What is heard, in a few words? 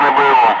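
Russian speech, Ka-50 Wingman; DCS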